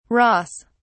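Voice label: "ross", British English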